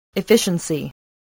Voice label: "efficiency", English